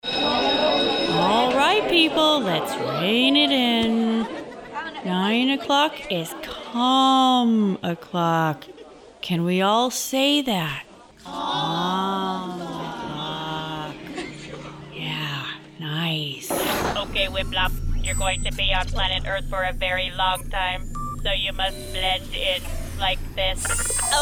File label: Animation